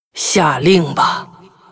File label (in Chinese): Hero female demon hunter what